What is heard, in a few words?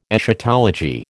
"Eschatology", American